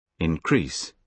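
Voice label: "increase", British English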